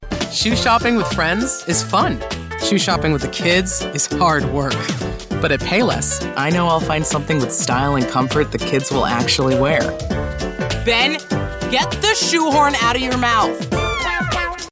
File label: mom sassy fun relatable fun aunt comedic funny